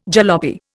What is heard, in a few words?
"jalopy", Indian